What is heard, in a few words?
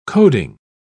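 "coding", English, British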